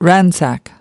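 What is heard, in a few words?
"ransack", US English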